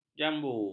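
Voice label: "jambu", Malay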